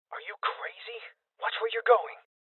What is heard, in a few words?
Voices Guardian Male Young; rebel cops 2019